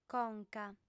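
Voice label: "conca", female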